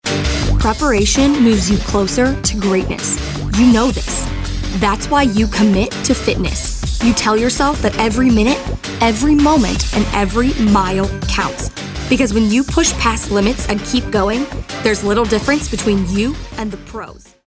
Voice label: motivational